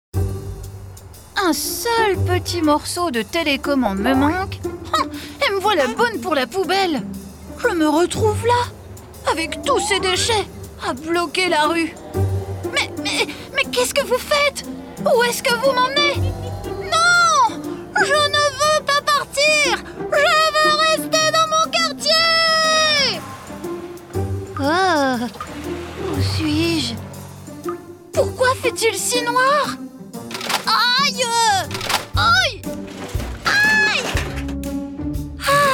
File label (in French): Voix off motion design animation cartoon; dessin anime